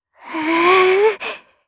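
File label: Vox Casting Japanese; Lavril; kingsraid; jp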